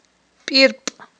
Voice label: "пIирпI", Aghul